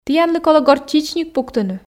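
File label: "тіянлы колӧ горчичник пуктыны", Komi, female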